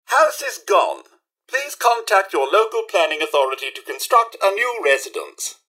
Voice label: robot talk; mrhandy